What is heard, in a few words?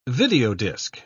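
"videodisc", British English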